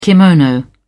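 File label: "kimono", British English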